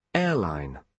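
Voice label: "airline", British English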